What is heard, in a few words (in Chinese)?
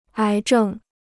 "癌症", Chinese